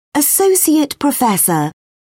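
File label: "associate professor", British English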